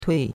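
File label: "tui4", Mandarin Chinese; Cours de Chinois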